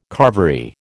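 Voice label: "carvery", American English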